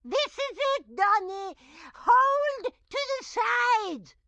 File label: grandma chopper hold to side tutorial; Blind Drive